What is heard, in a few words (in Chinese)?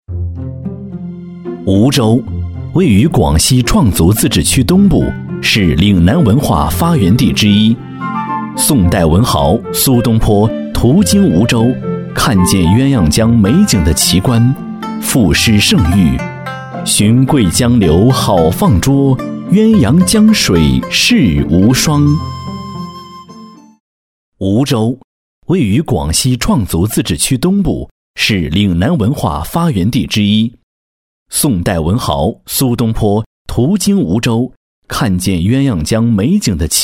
男 专题片纪录片; 无双土特产